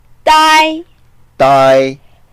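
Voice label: "dai1", Mandarin Chinese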